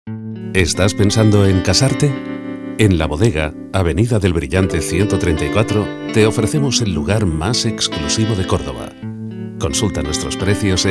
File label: locutor spanish voiceover; Spanisch Sprecher